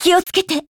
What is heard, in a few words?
Voice JP; Peritya